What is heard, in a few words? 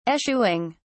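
"eschewing", British English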